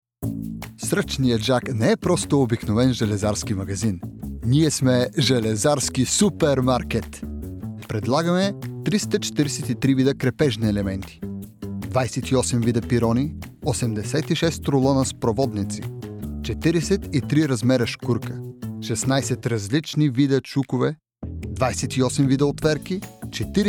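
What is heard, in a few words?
Bulgarian commercial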